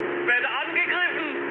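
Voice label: German aircraft damaged mood high, speech; War Thunder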